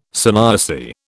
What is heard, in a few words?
"sanyasi", British